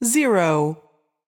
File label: voice Over, roulette; europeanroulette3